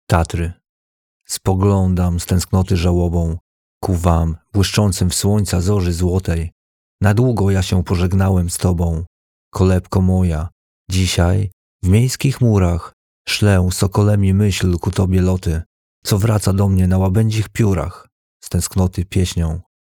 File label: male polish poem